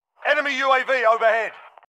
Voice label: enemy uav overhead uk team leader; modern warfare